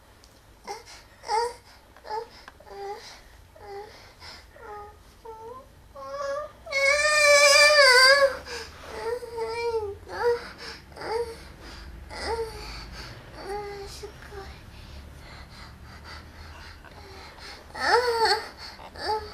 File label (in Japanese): イチャ甘Voice 25歳; 国語教師